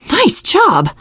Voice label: nice job, teengirl; survivor voice